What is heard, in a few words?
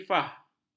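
"iffah", Malay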